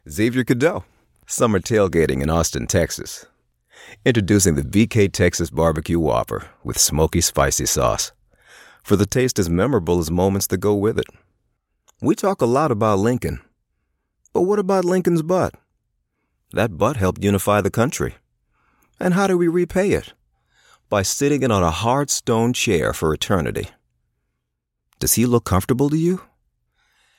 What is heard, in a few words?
american male conversational